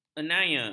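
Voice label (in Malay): "aniaya", Malay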